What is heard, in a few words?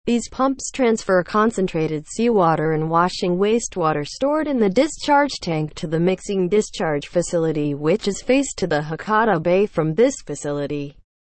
english, seawater desalination; watercompany